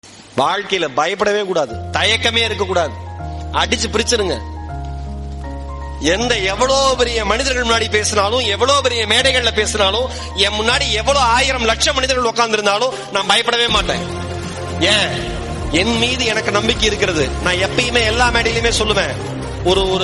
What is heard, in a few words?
motivation speech tamil; erode mahesh